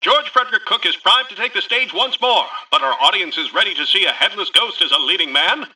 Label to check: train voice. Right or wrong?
Wrong